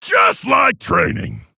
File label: Male Orc Attack